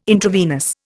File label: "intravenous", Indian